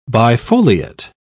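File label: "bifoliate", English, British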